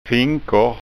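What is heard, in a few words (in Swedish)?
"finkor", framtidsskånska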